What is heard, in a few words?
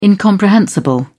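"incomprehensible", British English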